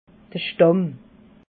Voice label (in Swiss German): "stamm", alsace